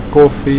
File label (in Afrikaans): "koffie", Afrikaans